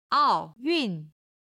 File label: "ao4yun4", chinese